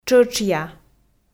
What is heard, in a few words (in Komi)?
"тшӧтшъя", Komi